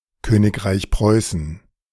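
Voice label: "Königreich Preußen", German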